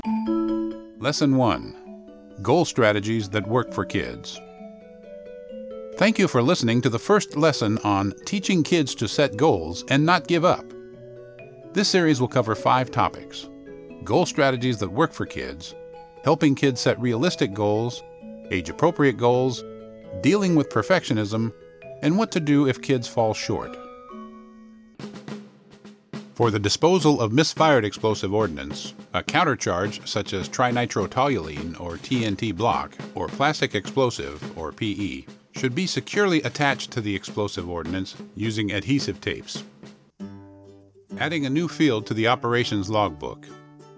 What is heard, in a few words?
eLearning excerpts